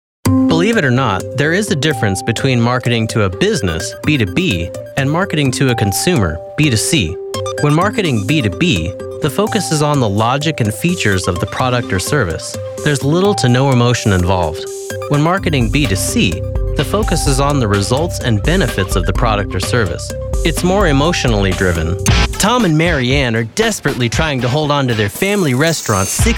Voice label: Narration